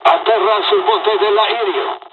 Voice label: second wave land, dialogs italian; War Thunder